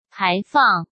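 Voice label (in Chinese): "排放" Mandarin Chinese; chinese-flashcards; hsk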